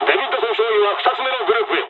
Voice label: dialogs japanese; War Thunder